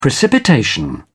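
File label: "precipitation", British English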